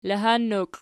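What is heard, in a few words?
"lahannokl" nominative, Archi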